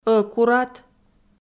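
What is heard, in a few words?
"ӧкурат", Komi, female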